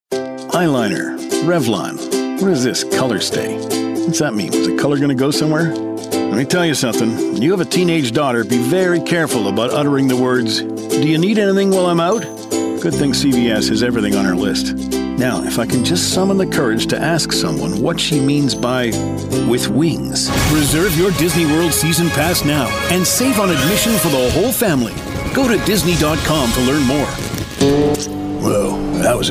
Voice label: english canadian commercial